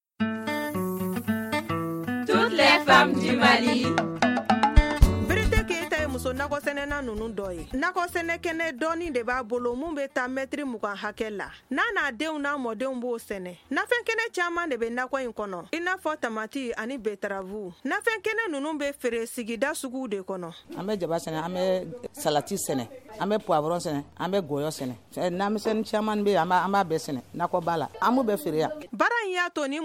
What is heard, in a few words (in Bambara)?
magazine bamanankan